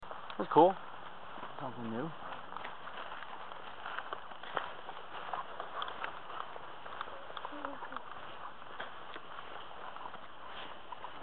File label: Female Child Voice; RCA